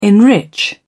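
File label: "enrich", British English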